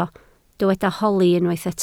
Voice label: "holi", Welsh